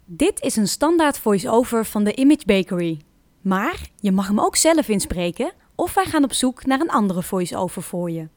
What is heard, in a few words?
Voice over; Image Bakery